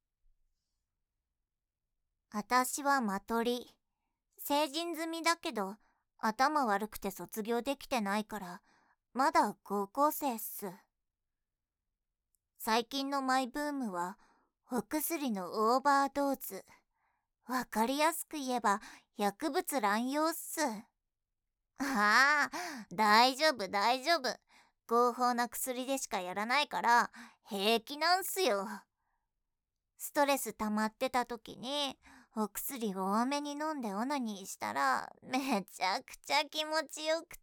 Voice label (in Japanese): 自己紹介, ASMR